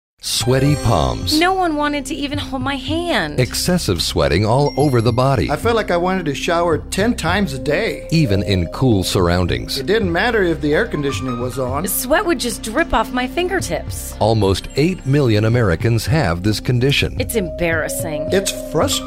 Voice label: Radio AD